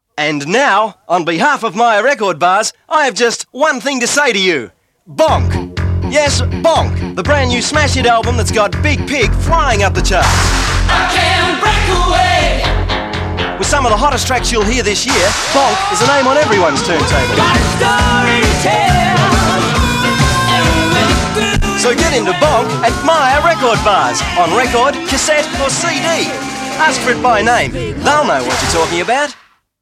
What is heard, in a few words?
Voice Over; Bonk